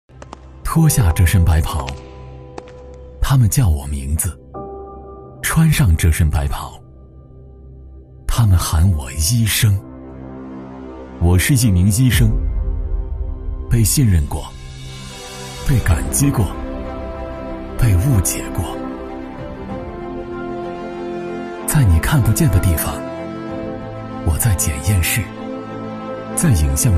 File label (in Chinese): 男 走心